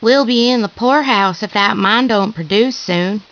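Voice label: female question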